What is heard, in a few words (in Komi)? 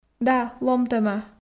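да ломтӧма, Komi, female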